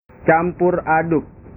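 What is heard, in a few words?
"campur aduk", Indonesian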